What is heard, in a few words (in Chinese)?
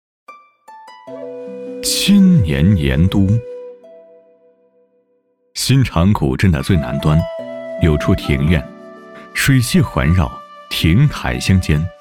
男,记录,盐都; 千年盐都